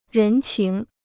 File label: "人情", Chinese